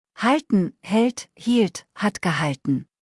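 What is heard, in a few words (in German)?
"halten" German word; verben kurzliste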